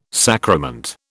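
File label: "sacrament", British English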